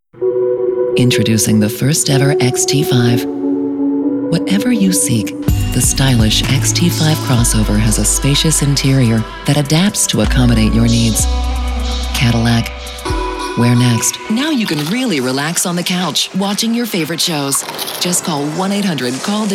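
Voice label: F, English US, female, commercial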